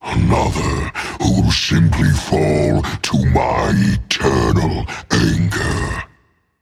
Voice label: taunt rage; chaac